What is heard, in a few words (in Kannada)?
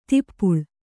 "ದೀಪಾಳಿ", Kannada